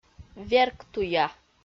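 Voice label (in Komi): "верктуя", Komi, female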